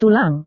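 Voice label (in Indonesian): "tulang", Indonesian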